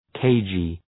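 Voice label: "cagey", English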